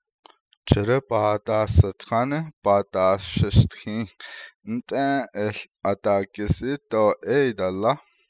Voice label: I started to put the license plate on my car when, Navajo; I started to put the license plate on my car when I found out I had the wrong kind of nut and bolt (or screws).; doo --- da frame to negativize verbs and phrases